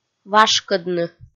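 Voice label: "вашкӧдны", Komi, female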